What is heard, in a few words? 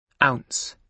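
"ounce", British English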